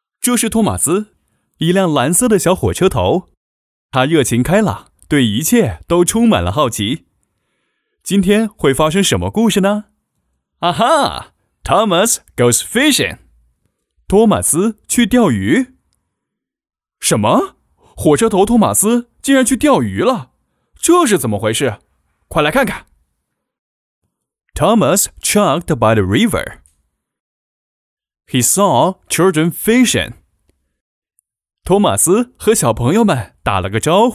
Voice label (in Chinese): 男 英语 中英解说 儿童绘本